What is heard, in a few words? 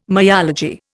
"myology", Indian